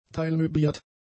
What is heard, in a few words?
"teilmöbliert", German; adjectives